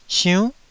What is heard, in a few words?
"siunn9", Taiwanese